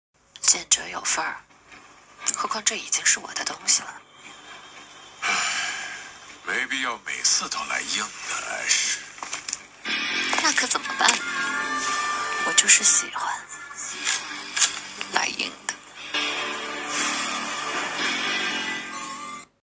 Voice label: 御姐 游戏 角色 配音; 艾什

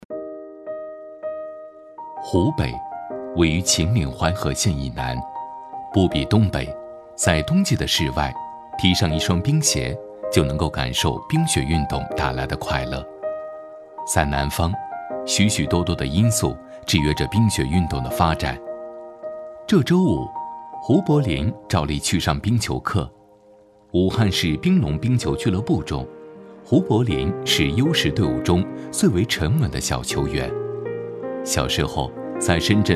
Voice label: 平白讲述 冰球

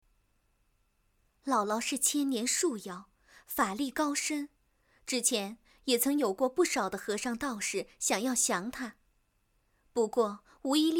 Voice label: 女角色

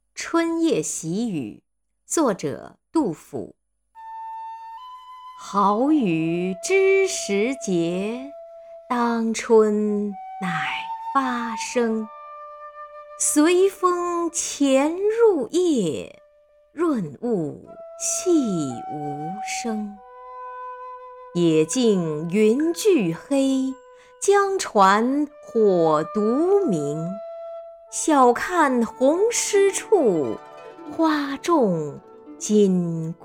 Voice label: 春夜喜雨, 有声读物 大气, 女配音, 中年（36-54）, 普通话; 杜甫

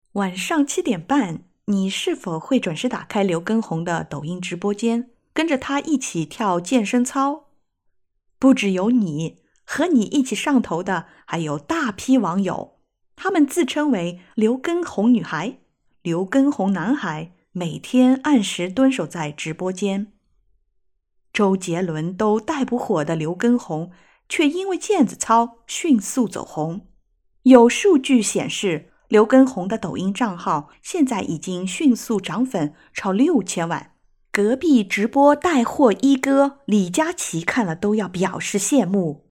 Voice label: 时讯节目配音样音

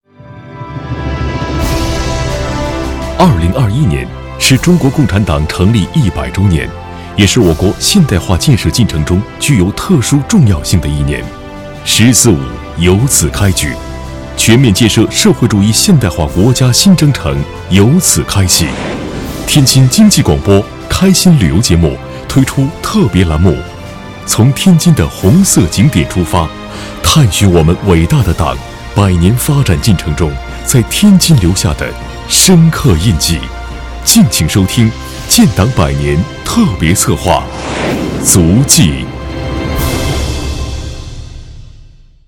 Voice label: 经济广播开心旅游足迹; 开心旅游足迹完成

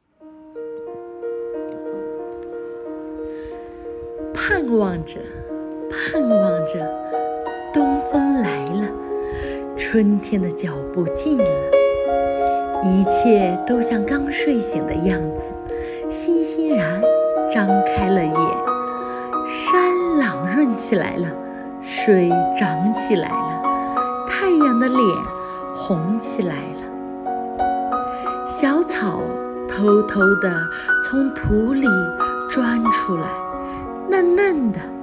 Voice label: 春诗歌朗诵

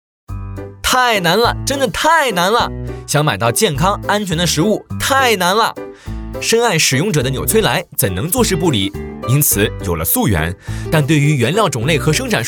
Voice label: 搞怪欢快; 充满爱与责任

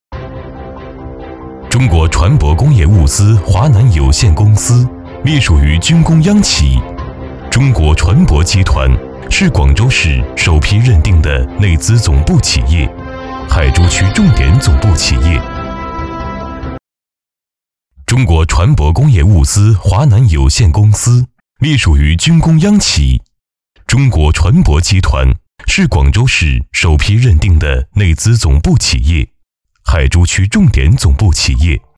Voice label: 宣传片中国船舶工业

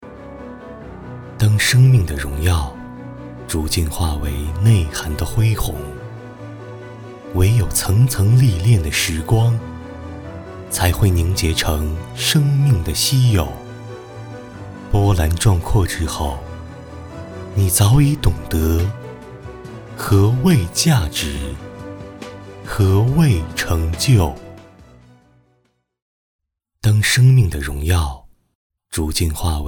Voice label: 广告抒情大气品质珠宝

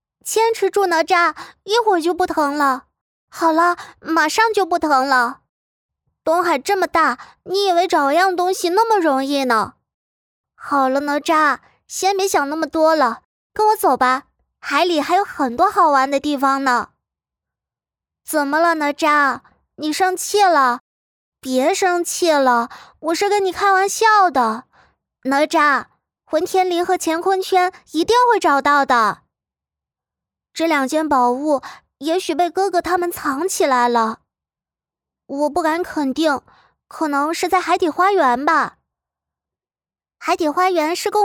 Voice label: 可爱角色音; 哪吒小龙女

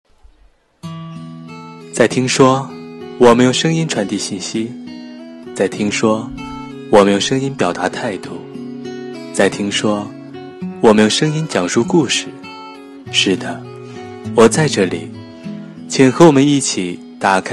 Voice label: 广告独白

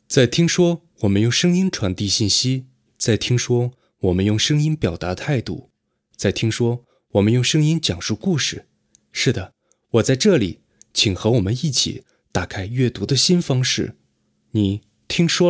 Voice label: 讲述类

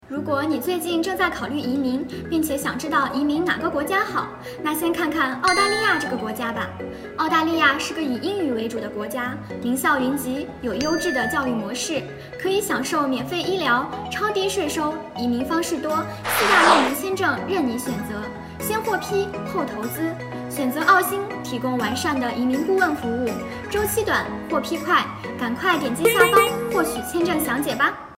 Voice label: 火锅口播

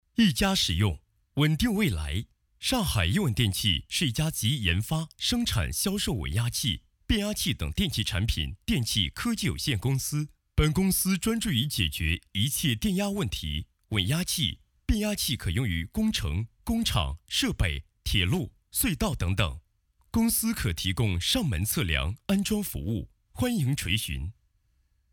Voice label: 公司宣传配音; 亿稳

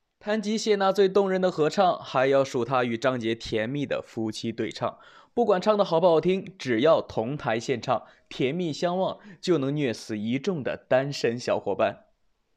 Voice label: 演绎类

